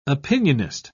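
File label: "opinionist", British English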